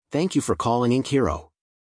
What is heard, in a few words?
welcome, phone american